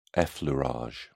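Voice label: "effleurage", British English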